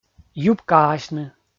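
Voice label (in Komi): "юбкаасьны", Komi, male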